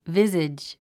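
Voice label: "visage", English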